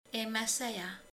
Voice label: asztal, romani

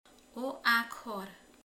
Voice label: "dió", romani

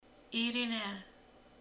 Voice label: ír, romani

